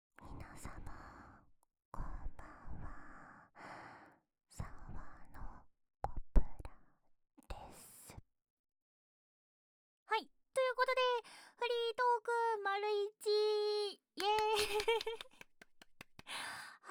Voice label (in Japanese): 収録前フリートーク; 【ママ2】 体験版